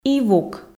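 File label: "ивук", Komi, female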